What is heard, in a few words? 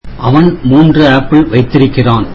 tamil he has three apples